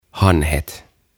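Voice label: "Hanhet" Finnish; Geese; OTAVA KONSERNI; tuhatsanaa; aantamisohjeet suomeksi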